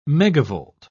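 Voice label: "megavolt", British English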